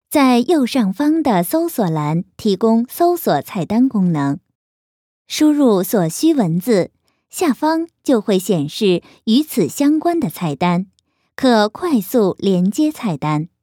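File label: Chinese, female, narration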